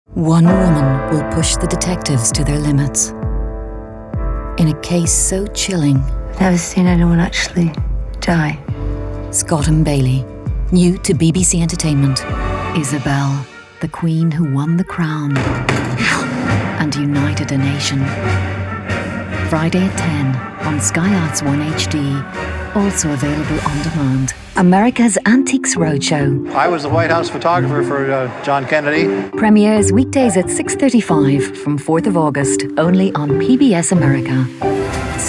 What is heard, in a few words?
voiceover tv promo showreel promo